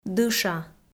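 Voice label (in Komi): "дыша", Komi, female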